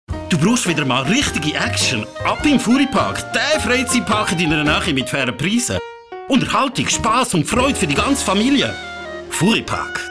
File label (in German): Werbung Schweizerdeutsch; Furripark